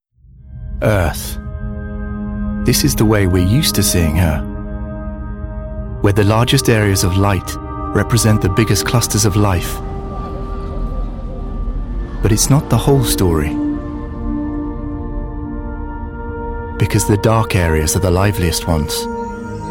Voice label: Documentary voice reel; bestbritishvoiceover